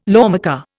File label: "lawmaker", Indian